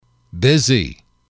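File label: "busy", male, English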